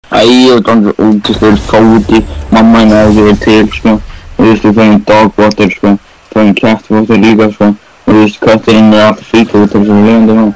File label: funny rage